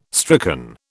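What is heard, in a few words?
"stricken", British English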